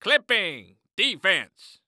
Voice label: Sports Human Voice Male Football Referee; Clipping Defense

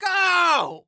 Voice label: Human Male Yells And Cheers Go

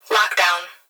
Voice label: Human Female Voice Plant Security Dispatch; Lock Down; Emergency

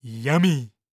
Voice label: Cartoon Voice "Yummy"; AdobeCC

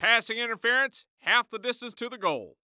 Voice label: Sports Human Voice Male Football Referee

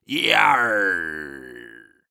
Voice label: Human Pirate Say Yarr

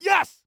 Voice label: "Yes", Human Male

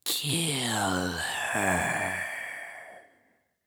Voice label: Horror Scary Demon Speak Kill Her

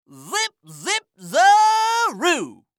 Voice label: Cartoon Voice Zip Zip Zaroo Radio Host

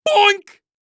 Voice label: Cartoon Loud Voice "Boink"

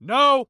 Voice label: "No", Human Male

